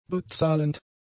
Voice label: "bezahlend", German; adjectives

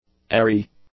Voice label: "airy", English; adjectives

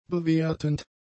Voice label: "bewertend", German; adjectives

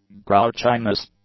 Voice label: "grouchiness", English; nouns

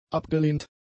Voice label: "abgelehnt", German; adjectives

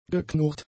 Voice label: "geknurrt", German; adjectives

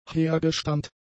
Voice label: "hergestammt", German; adjectives

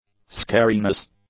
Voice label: "scariness", English; nouns

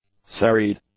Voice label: "serried", English; adjectives

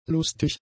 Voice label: "lustig", German; adjectives

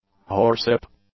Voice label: "horsewhip", English; nouns

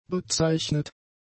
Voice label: "bezeichnet", German; adjectives

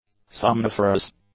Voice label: "somniferous", english; adjectives

